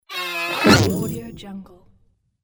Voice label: funny accent